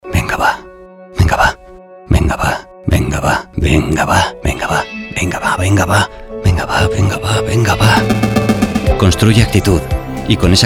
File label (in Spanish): Campaña radio; Construye actitud